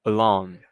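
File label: "alarm", TWN, male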